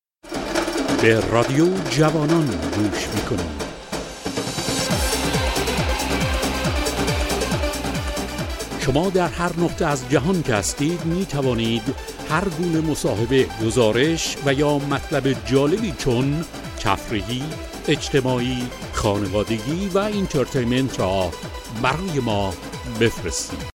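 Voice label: farsi male commercial; voice demos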